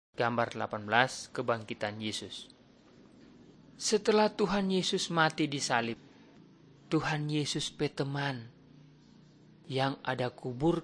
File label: malay manado; good news